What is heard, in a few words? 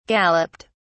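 "galloped", British English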